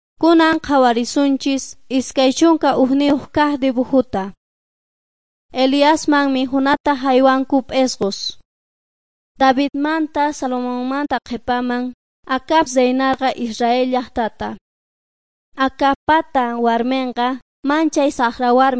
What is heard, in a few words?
quechua bolivian cochabamba; servants of god